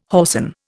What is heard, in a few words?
"whoreson", Indian